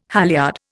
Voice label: "halyard", Indian